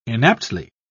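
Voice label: "inaptly", British English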